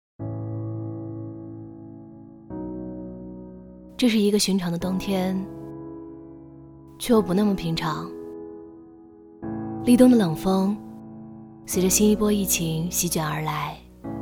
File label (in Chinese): 女 疫情专题; 冬天的成都疫情